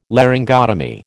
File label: "Laryngotomy", American